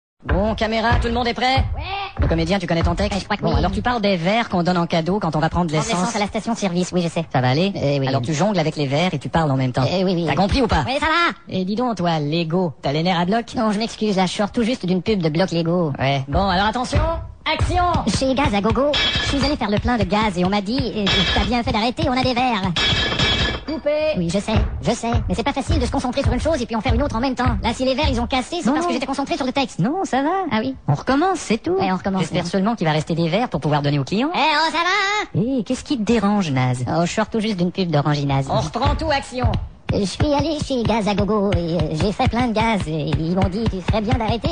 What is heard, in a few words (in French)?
Publicité; verre; Les deux minutes du peuple